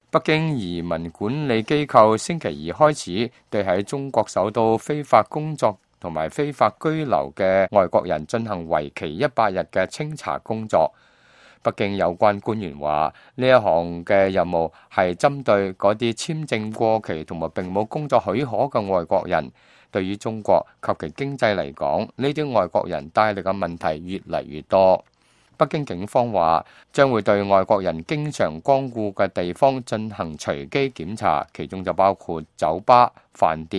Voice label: cantonese, news